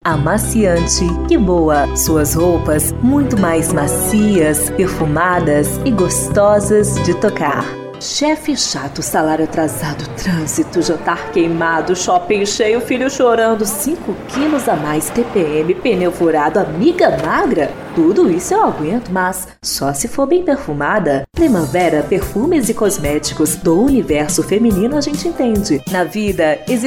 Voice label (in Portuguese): vozes femininas